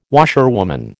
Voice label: "washerwoman", American